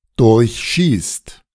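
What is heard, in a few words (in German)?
"durchschießt", German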